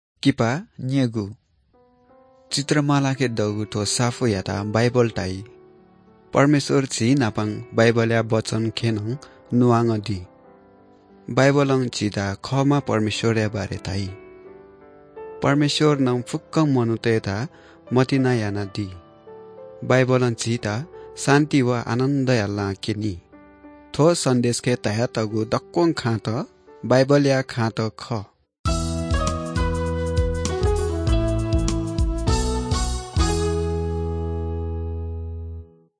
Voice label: good news newari bhaktapur

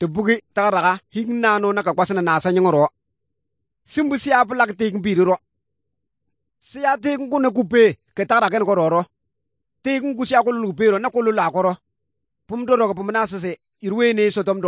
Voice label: good news, kabiye bible

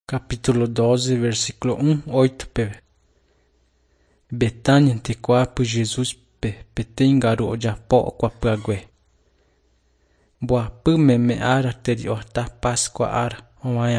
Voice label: john guarani mbya, bible

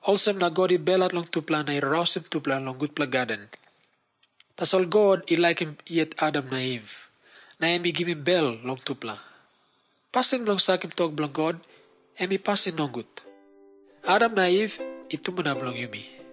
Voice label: tok pisin; png nt drama; can god forgive me

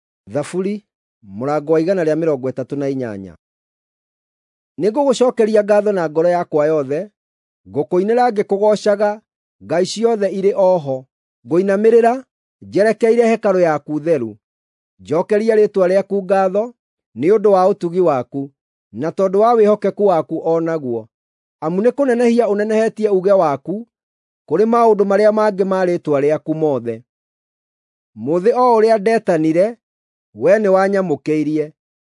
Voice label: psalms, gikuyu drama

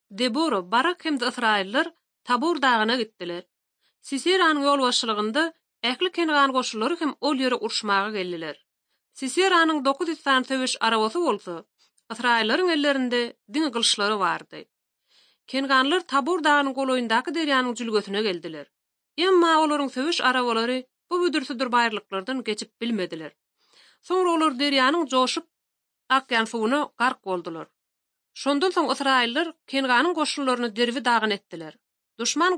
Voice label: turkmen, ot drama; institute for bible translation; servants of god

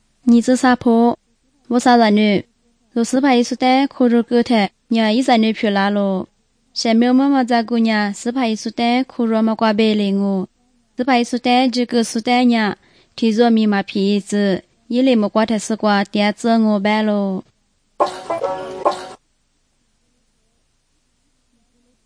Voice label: good news lisu southern, drama

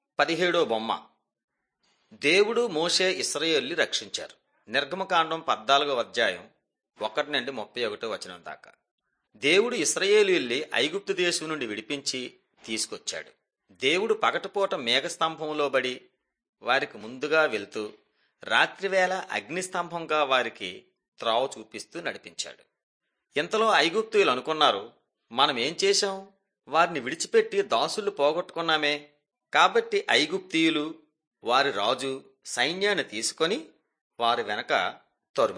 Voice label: telugu bangladesh; mighty men of god

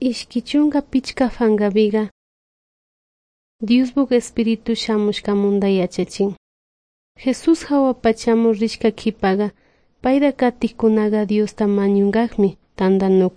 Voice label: good news quichua de salasaca

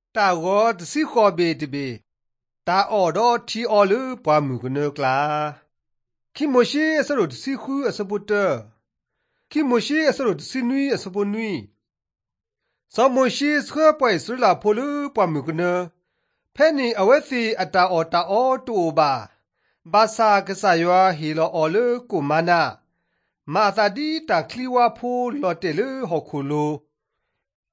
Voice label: drama, karen sgaw; mighty men of god; bible

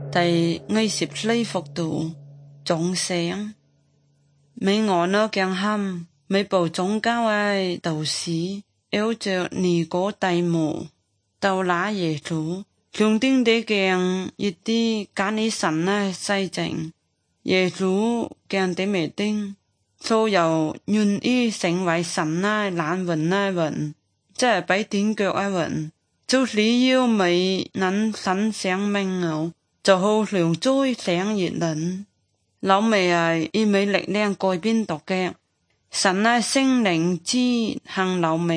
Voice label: good news zhuang guigang guiping

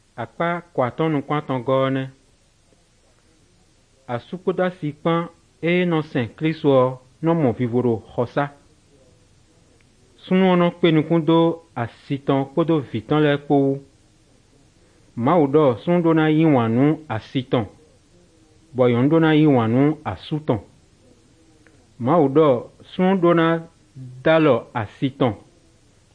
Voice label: good news, fon fongbe bible drama

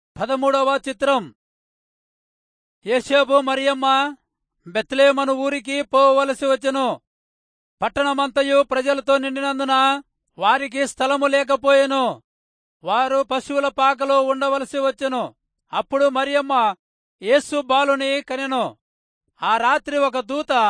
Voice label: telugu rayalseema; good news

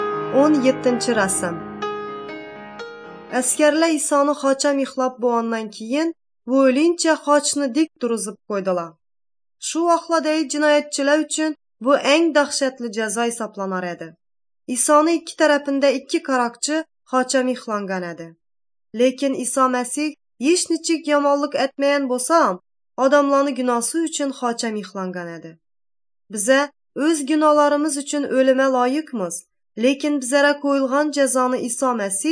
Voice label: uzbek northern kipchak; good news

